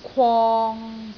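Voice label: "kwong3", Cantonese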